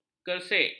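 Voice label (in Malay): "kersik", Malay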